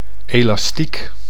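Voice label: "elastiek", Dutch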